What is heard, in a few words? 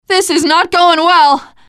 Reaction Negative; svencoop